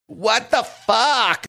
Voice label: saying what the fuck offended

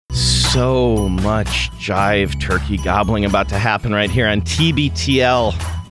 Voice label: so much jive turkey gobbling

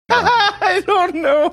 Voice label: saying i dont know laughing

